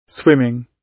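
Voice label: "swimming", British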